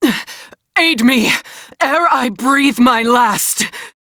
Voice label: Crew Need Revive, Female; Dialog; en; Warframe; Railjack Crew; New Loka